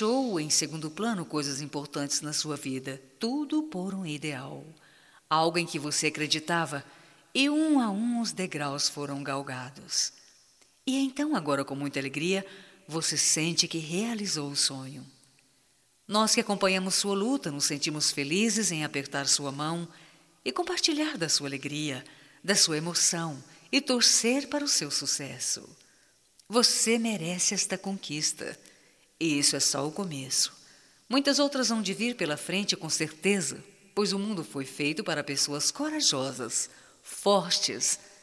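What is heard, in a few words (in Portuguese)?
PARABENS VOCE VENCEU - female, Telemensagens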